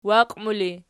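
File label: "waqimu" ergative, Archi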